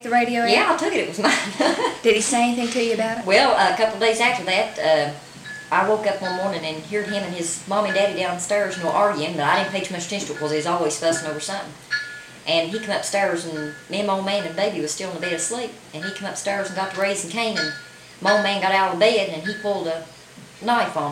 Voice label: Conversation with 27 year old white female West Virginia; american english dialect